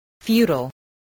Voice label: "futile", voice, English